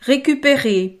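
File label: "recuperer", French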